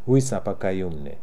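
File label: "Ooissapagaoomnee", pronunciations; RUS; Fourth Way; Beelzebub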